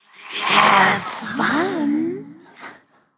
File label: vox emote; smite; izanami